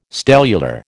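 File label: "stellular", American English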